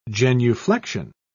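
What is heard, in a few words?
"genuflexion", British English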